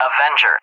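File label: "Avenger", English; AirDefence; DCS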